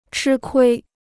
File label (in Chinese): "吃亏", Chinese